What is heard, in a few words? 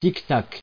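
"tic-tac", French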